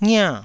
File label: "ngia4", Taiwanese